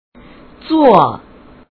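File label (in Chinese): "作", Chinese; 小学语文教学课件; 易语言; 中小学教学课件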